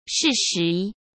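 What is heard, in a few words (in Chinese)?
"适时", Mandarin Chinese; hsk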